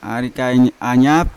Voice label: "arikain anya", child, Yawa

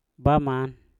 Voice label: "baman", Mpur; apa

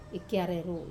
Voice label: "kiare ru", to shave ones head, Wamesa

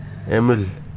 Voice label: "night", wahgi; lae unitech

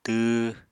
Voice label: "tww", Apatani

